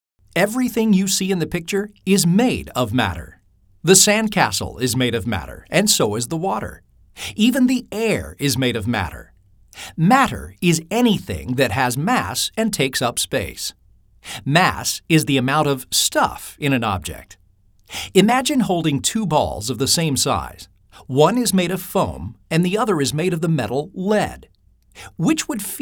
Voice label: English Male